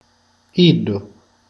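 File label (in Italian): "lui", Sicilian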